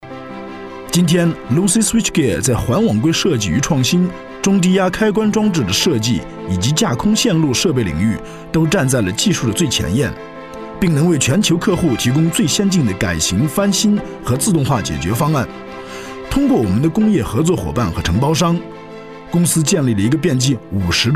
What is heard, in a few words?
Chinese; GoLocalise; Rain Bird